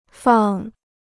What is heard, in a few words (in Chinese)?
"放", Chinese